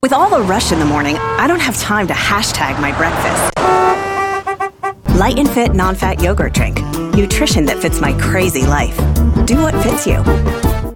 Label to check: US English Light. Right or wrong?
Right